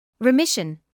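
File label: "remission", British English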